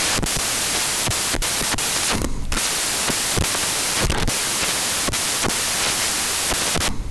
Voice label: speech 8bit error; digital signal processing lecture; quantization